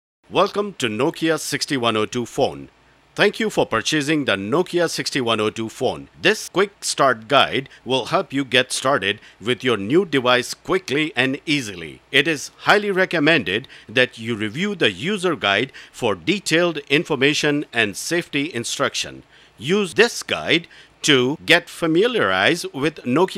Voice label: Indian Accent; foreign languages